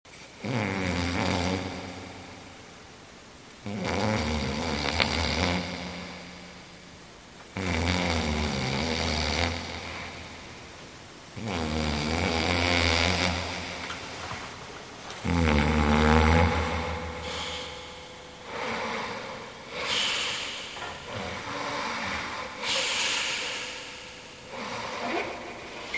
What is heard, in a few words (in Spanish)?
CQ ronquido; Laguna San Luis